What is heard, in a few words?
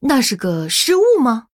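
Female, Chinese; Human; Oops; zh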